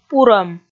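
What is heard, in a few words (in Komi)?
"пурӧм", Komi, female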